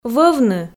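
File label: "вӧвны", Komi, female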